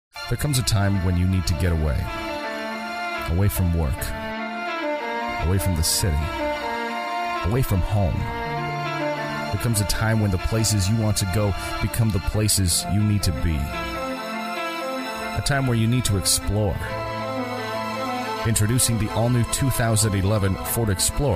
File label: Commercial Male